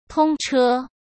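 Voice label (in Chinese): "通车", Mandarin Chinese; chinese flashcards; hsk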